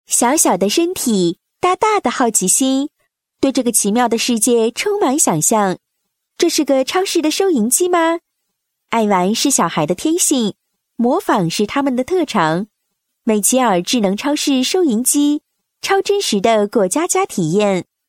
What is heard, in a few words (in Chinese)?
女 宣传广告; 智能超市收银机玩具宣传; 美其尔